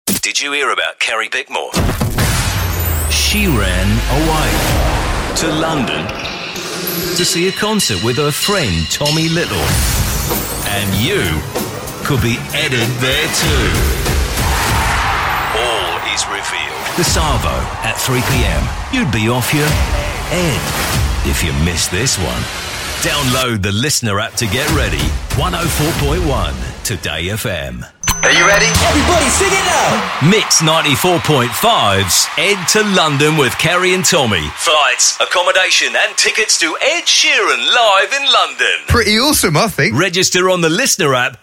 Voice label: english australian promos